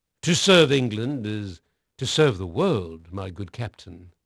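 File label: English male; Pirates Of The Caribbean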